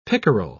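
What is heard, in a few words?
"pickerel", British English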